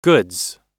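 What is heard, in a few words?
"goods", English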